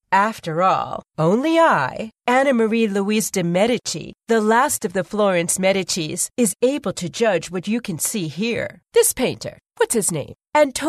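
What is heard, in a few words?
US English, female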